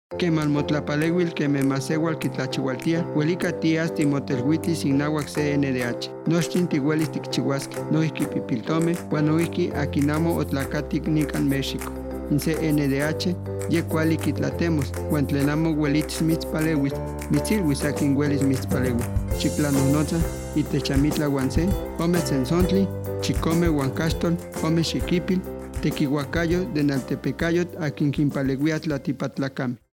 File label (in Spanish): Spot nahuatl; Campanas; CNDH